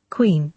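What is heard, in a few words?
"queen", British English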